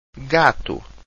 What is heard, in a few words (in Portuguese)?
"gato", Portuguese